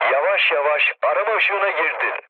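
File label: Turkish searchlight, mood low, speech; War Thunder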